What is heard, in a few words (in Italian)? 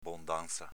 "bondanza", dialetto veneto